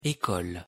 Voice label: "ecole", fr

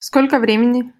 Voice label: "сколько времени" Russian

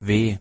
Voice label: "w" pronunciation de

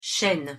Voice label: "chaine", fr

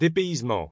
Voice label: "depaysement" pronunciation

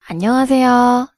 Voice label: hello in Korean pronunciation

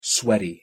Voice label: "sweaty", en

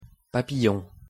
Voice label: "papillon" pronunciation fr

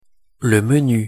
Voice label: "le menu", fr

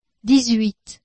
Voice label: numbers in French 18

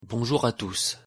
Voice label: "bonjour a tous", fr

